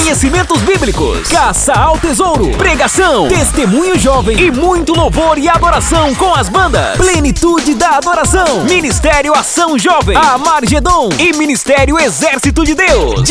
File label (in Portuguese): locutor spot gincana